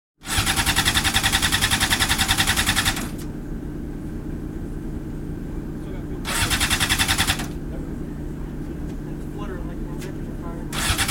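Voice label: "car", French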